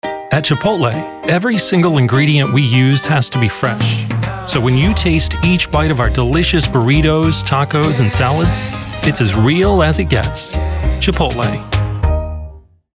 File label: commercial, voice over for commercials; chipotle